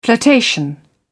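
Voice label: "flirtation", British English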